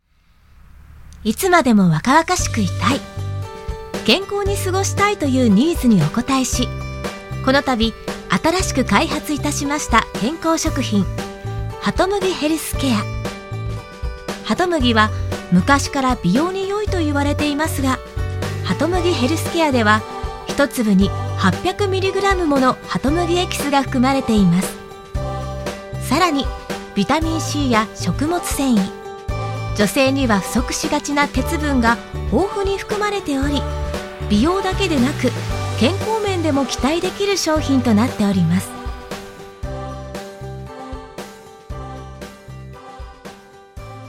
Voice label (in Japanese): ナレーション